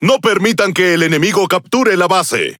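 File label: Spanish Speech; Quick Commands Ally Base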